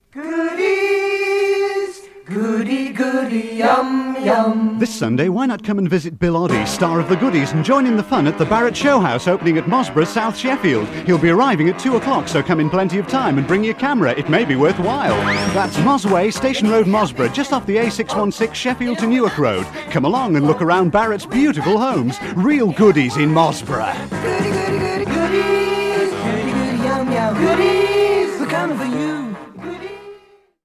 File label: Radio Hallam Advert; Barrate Showhome; Bill Oddie